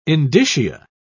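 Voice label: "indicia", British English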